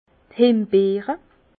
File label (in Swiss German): "hembeere", Bas Rhin; framboise; vin